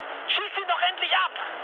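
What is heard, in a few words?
German aircraft damaged mood med, speech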